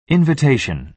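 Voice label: "invitation", American English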